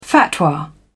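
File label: "fatwa", British English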